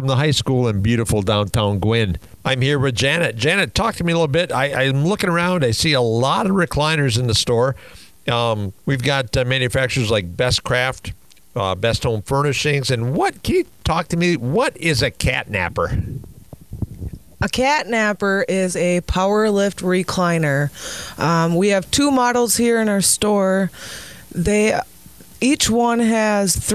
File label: live radio drop; WKQS; E and E Furniture of Gwinn; Great Lakes Radio